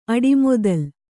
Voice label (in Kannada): "ಅಡಿಮೊದಲ್", Kannada